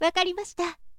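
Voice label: woman, "wakarimashita"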